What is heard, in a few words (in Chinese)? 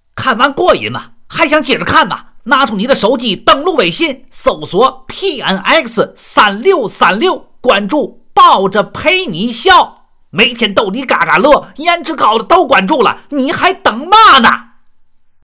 【方言】天津话男-激动